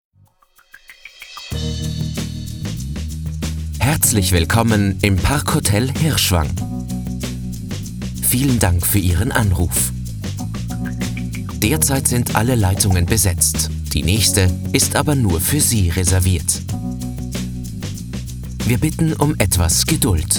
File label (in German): Telefonschleife